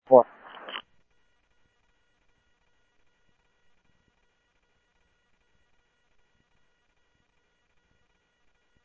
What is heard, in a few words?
Sheriff Common, scanner audio trunk; ThompsonPeakScot; MCSO - Info